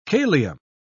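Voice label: "kalium", British English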